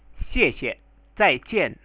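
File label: Thank you! Good bye, Chinese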